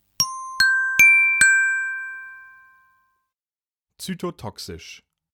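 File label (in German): "zytotoxisch", German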